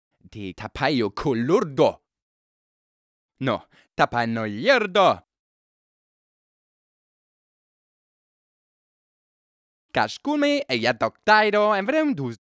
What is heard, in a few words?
talk Argue; Singles Flirt Up Your Life